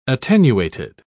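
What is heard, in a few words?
"attenuated", English, British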